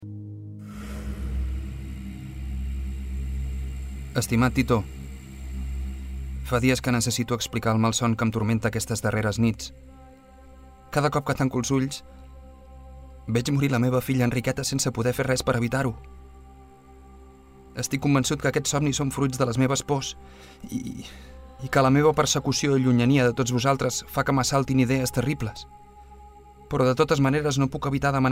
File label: LOCU CATALA Podcast